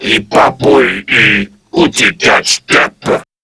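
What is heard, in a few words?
Patrol Crewmen, English; Dialog; Barks; Battle; Warframe; CorpusCrewmen; CrewmanA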